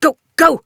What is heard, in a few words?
Adult, en; Warframe; Duviri; Cinematics; Steal Horse